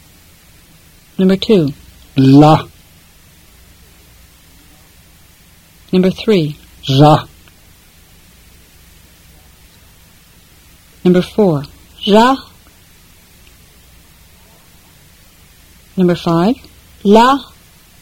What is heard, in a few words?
Fluent Tibetan drill